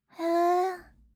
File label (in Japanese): "hee", reaction, voice